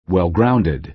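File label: "well-grounded", American English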